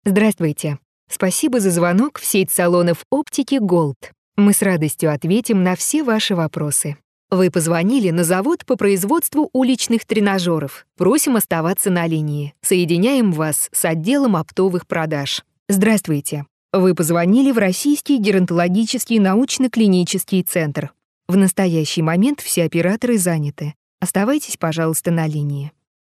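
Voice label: Russian, female, IVR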